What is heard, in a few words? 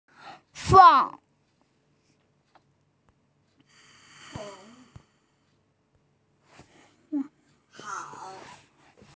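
"huang4", Mandarin Chinese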